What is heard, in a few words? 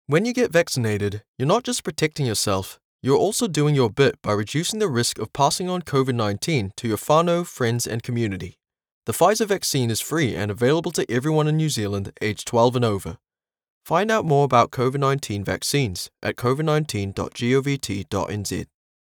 newzealand accent sample